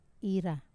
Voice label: "ra" go, Wamesa